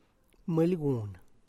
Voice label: "man bde", money given as alms, Tlacochahuaya Zapotec